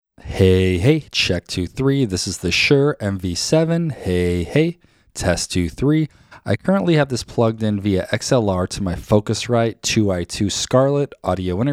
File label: Shure MV7 XLR Sound Sample